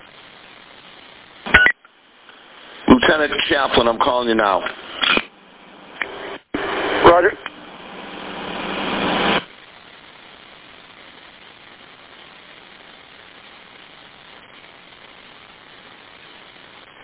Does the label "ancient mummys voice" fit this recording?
No